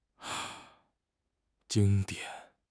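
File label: "jing1 dian3", Chinese; 汉语口语新时代-初级下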